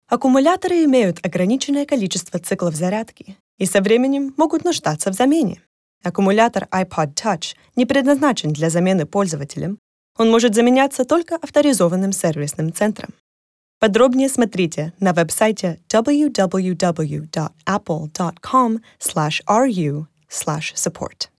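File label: Russian Narration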